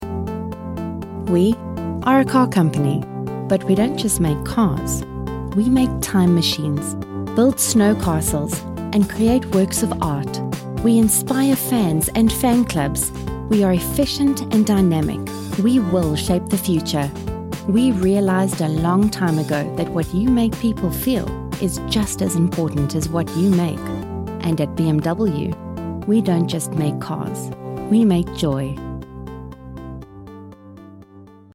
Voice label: english south african automotive